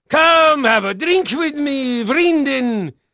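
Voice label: Dutch male, voice; Pirates Of The Caribbean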